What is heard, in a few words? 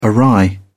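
"awry", British English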